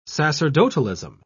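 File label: "sacerdotalism", English, British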